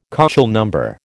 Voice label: "KÔchel number", American